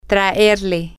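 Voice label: to bring her, Spanish, female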